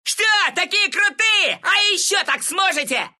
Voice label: respawn, ru; Meepo; Dota 2